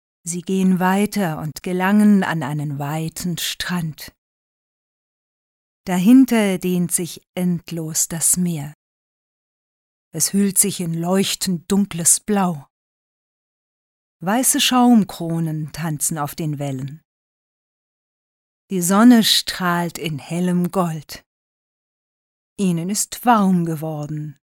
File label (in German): hoerbuch